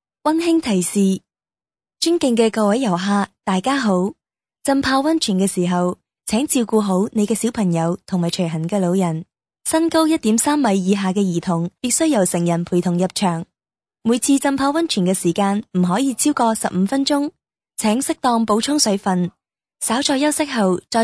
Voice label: 女声 粤语 温馨提示

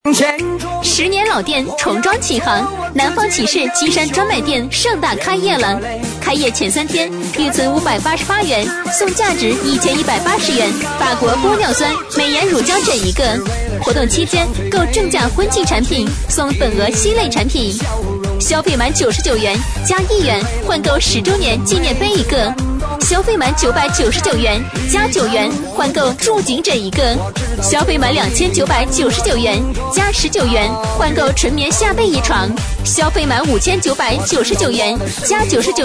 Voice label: 女声 广告 南方寝饰（活泼激情）

